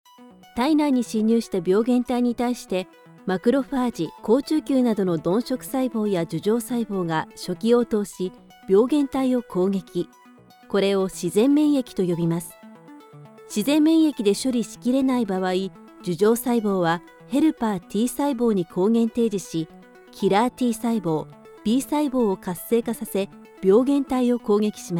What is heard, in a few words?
Medical Narration